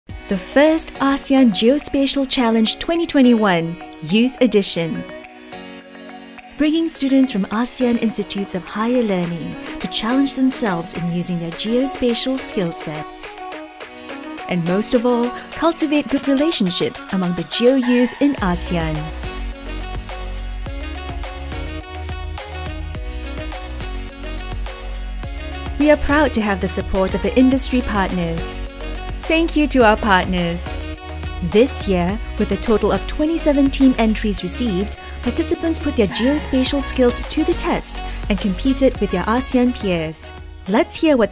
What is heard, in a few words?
english singapore audio guides